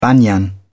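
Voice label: "banyan", British English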